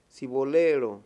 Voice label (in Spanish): "hechicero", Mayo; Religón y creencias; INALI